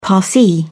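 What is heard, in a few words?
"parsi", British English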